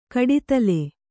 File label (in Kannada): "ಕಡಿತಲೆ", Kannada